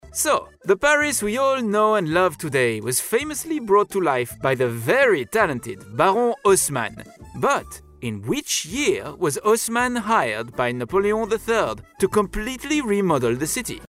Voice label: english british audio guides